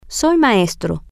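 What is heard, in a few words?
Spanish, female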